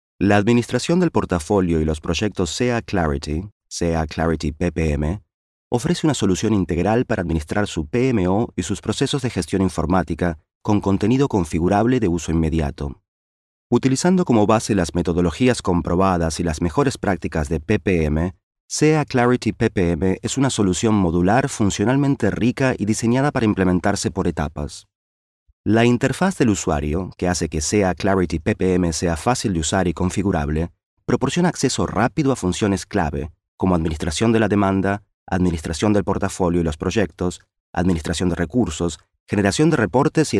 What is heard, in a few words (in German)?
Sprecher Spanisch LA; Nachvertonung Film